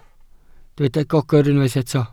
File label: "gogr", Welsh